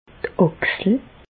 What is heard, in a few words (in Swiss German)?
"d' Àchsel", Bas Rhin; medecine; épaule